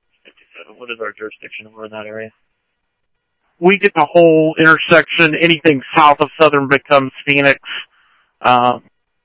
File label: Tempe Police, scanner audio